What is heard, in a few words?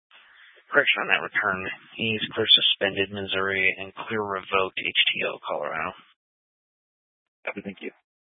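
Police Dispatch, Scanner audio; Police 1 Dispatch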